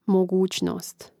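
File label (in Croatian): "mogucnost", Hrvatski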